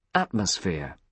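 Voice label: "atmosphere", British English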